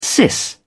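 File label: "sis", British English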